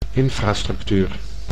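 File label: "infrastructuur", Dutch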